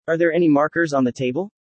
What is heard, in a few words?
fast; assessment